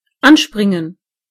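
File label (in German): "pounce", German; gcompris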